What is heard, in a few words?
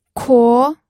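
"kuo", second tone, pinyin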